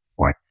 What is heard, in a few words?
Voice Acting, es